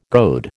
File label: "goad", American